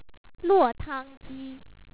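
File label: "luo4ta1ngji1", Chinese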